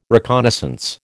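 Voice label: "reconnaissance", American English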